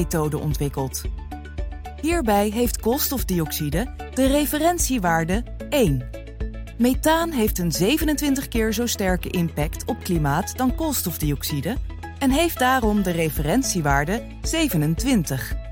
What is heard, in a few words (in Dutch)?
Duurzame zuivelketen Explainer Broeikasgassen